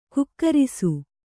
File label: "ಗೂಗರಿಸು", Kannada